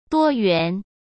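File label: "多元", Mandarin Chinese; chinese flashcards; hsk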